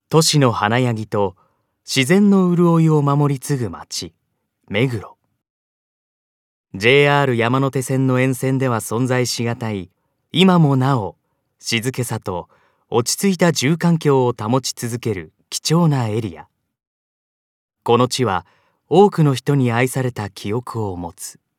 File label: narration